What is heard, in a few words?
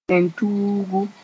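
"tẽn-tuugu", Mooré; Savannah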